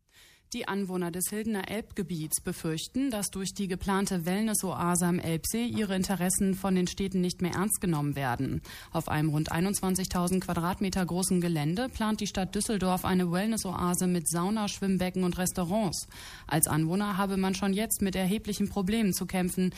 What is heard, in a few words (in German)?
radio lokalnachricht; radio neandertal; drivetime